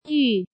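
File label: "Yù", Chinese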